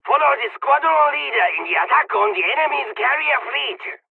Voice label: flight, dialogs czech; War Thunder

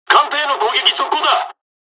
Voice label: dialogs japanese

